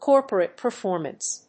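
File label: "corporate performance", English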